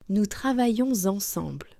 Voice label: "Nous travaillons ensemble", French

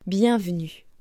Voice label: "bienvenue", French

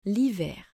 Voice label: "Lhiver", French

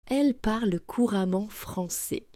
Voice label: "Elle parle couramment français", French

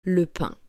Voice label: "pain", "le"

